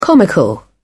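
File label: "comical", British English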